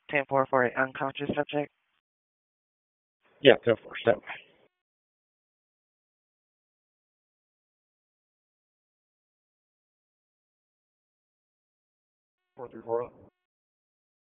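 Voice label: Police Dispatch, p25; Raleigh; Southeast District; wake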